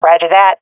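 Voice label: agree, swat female; vo; Counter Strike Model Pack; CS:GO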